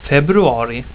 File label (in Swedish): "februari", swedish